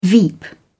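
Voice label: "veep", British English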